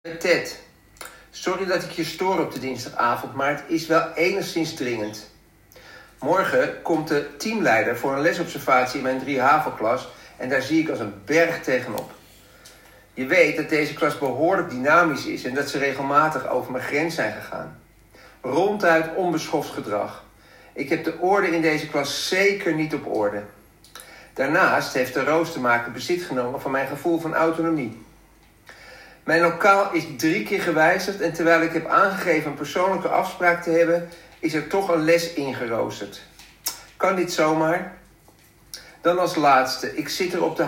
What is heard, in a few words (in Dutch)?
voicemail